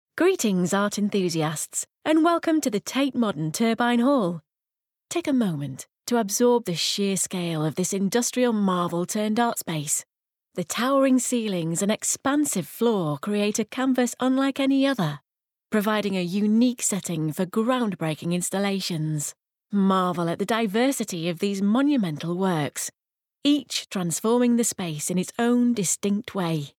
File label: english british audio guides